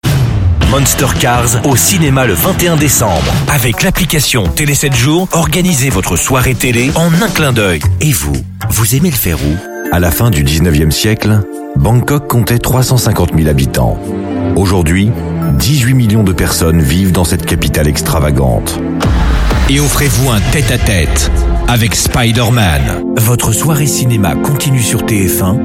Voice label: French Voice Compilation, French Male